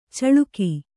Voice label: "ಚಳಿಕೆ", Kannada

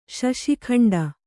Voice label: "ಶಶಿಖಂಡ", kannada

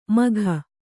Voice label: "ಮಘ", Kannada

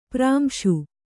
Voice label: "ಪ್ರಾಂಶು", Kannada

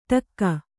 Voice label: "ಟಕ್ಕ", Kannada

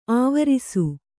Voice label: "ಆವರಿಸು", Kannada

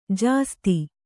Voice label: "ಜಾಸೂದು", Kannada

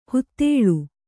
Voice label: "ಹೂದಳ", Kannada

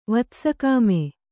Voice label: "ವತ್ಸಕಾಮೆ", Kannada

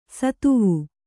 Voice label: "ಷತ್ವ", Kannada

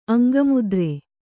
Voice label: "ಅಂಗಮುದ್ರೆ", Kannada

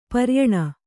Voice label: "ಪರಿಯಾಣ", Kannada

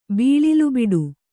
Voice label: "ಬಿಳಲುಬಿಡು", Kannada

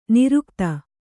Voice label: "ನೀರೋಗತೆ", Kannada

